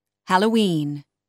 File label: "halloween", English